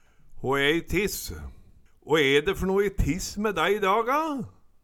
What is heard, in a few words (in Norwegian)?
"hå e i tiss", Numedalsmål; Hå e de fer no i tiss me dæi i dag a?